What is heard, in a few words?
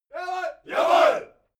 military shout german yessir